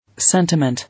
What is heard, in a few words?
"Sentiment", English